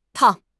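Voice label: "tang4", Mandarin Chinese; HSK4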